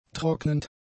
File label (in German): "trocknend", German; adjectives